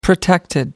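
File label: "protected", British English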